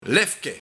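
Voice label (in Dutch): "Lefke", Luik, Onderhemdje, hemdje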